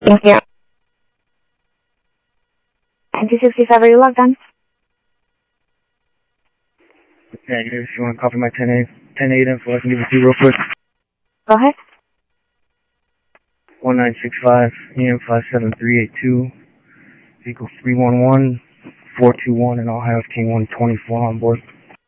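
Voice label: Sheriff West County, scanner audio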